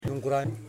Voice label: "Toe", Yimas